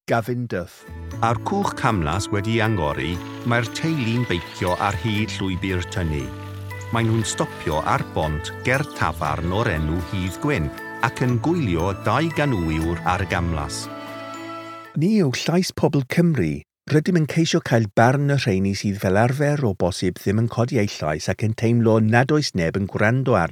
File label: VOICEREEL Welsh Language